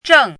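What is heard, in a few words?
"zheng4", Mandarin Chinese syllable, pinyin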